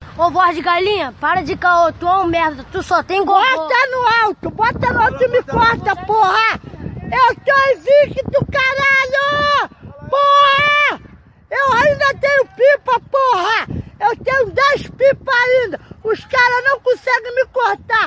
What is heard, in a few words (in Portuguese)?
voz de galinha